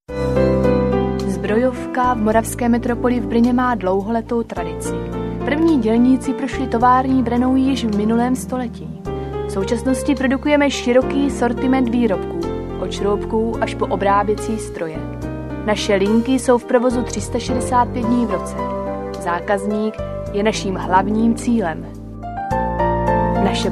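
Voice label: Czech male; Czech, Eastern European, Male, Home Studio, 30s-40s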